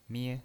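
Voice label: "mie", pinyin voice, Mandarin Chinese, first tone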